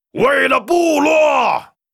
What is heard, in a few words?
war cry, zh; 苦工; 魔兽争霸3; 兽人